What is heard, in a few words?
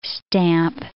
"stamp", English